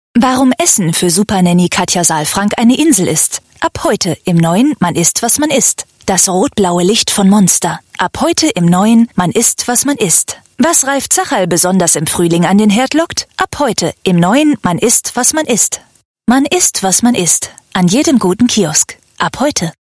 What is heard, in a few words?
German Radio Spot